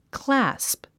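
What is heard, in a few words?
"clasp", English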